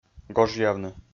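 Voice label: "гожъявны", Komi, male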